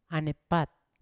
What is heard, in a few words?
"anepat", Yawa; to hit